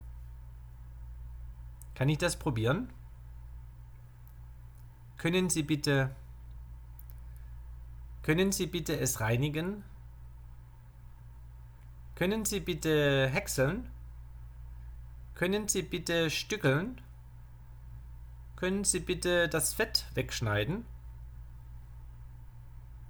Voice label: German Lesson Requests